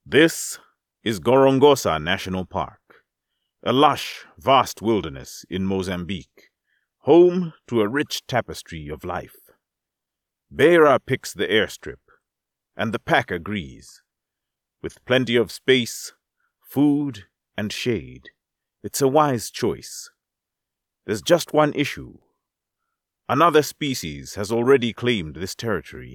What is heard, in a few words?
documentary